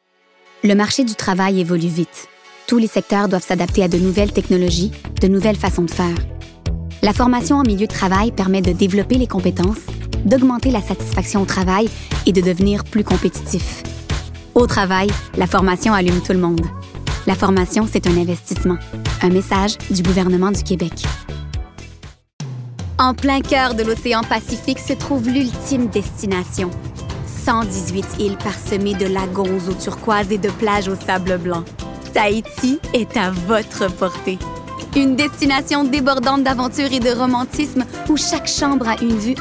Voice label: voix publicitaires

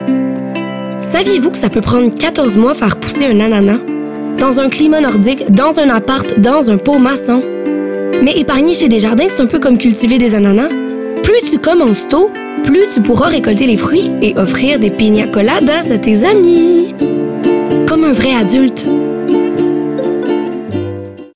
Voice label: Publicite; Dejardins

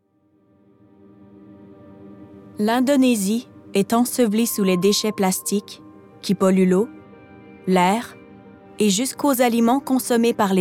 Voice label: Narration, âge de la voix: 27 - 35 caractéristiques: chaleureuse, souri; Démo narration (français)

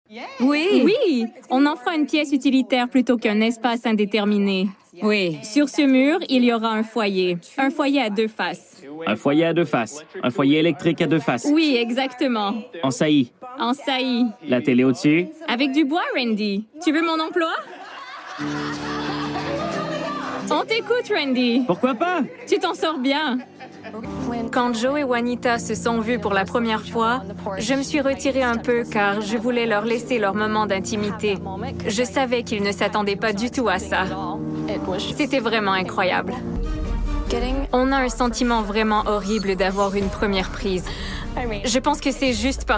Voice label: surimpression vocale; Extraits

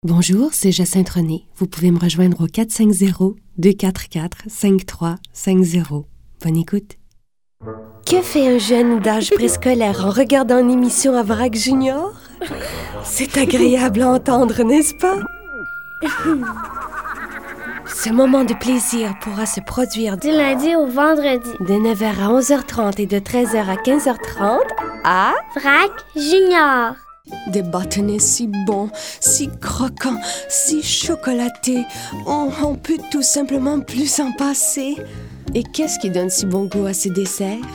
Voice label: f publicités

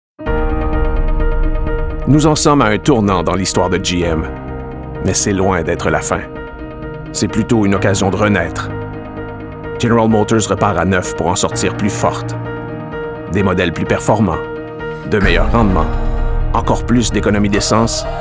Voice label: Demo voix; GM

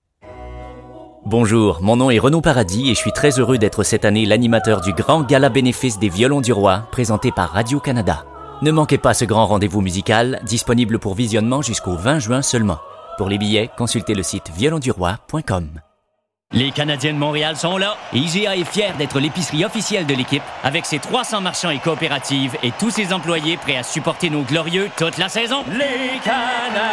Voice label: Démo voix PUB

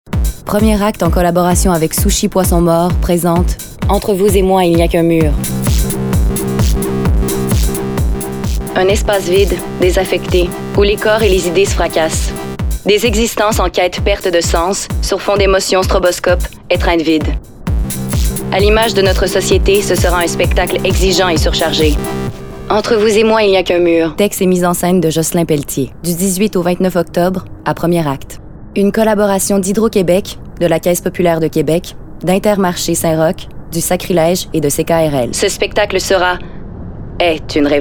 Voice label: Voix pub; entre vous et moi il n'y a qu'un mur

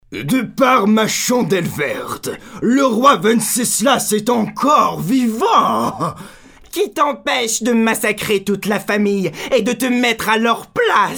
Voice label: Voix personnage

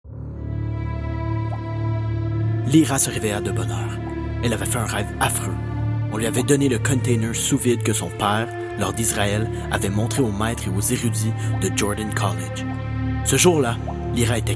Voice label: VOIX JEU VIDEO

